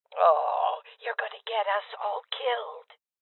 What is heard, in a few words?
Voices Guardian Female Old; Rebel Cops